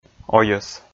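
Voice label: "ойӧс", Komi, male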